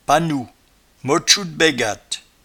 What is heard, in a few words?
"pannou", patois jura